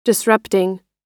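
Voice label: "disrupting", US English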